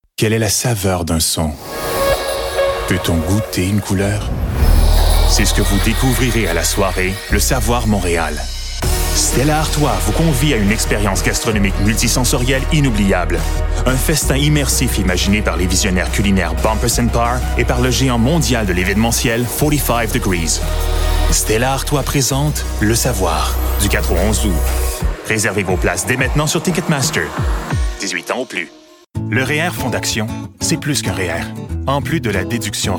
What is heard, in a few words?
French CN M Commercial, french canadian; voice over